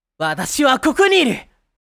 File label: I am here, anime boy character, japanese voice over